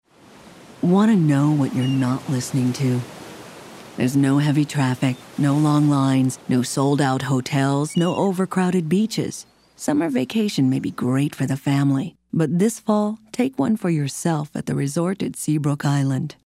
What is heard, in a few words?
smooth mellow